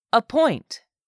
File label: "appoint", english; 3000 words